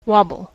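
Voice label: "wobble", US English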